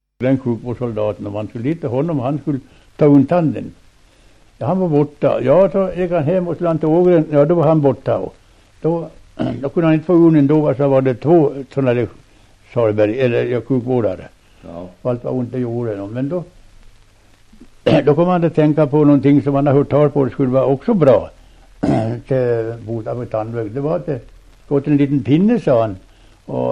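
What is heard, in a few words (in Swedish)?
Västerfärnebo Västmanland, dialekter; Folkminnen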